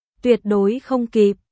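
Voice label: Vietnamese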